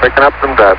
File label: chat; lander